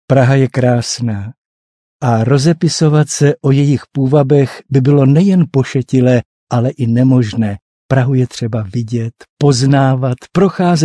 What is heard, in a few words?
czech male